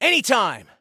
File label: Respond Any Time, Soldier Male; Soldier Male 01